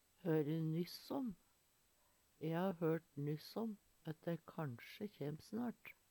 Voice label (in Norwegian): høre nyss om, Numedalsmål